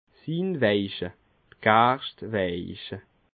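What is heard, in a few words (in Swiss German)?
"gerschtweiche", Alsatian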